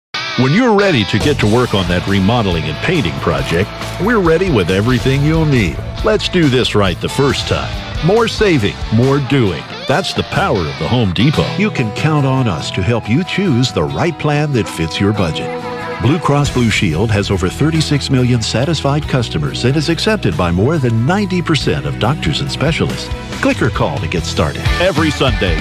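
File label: US English, Commercial; GoLocalise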